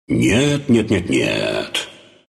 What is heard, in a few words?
deny, ru; dota2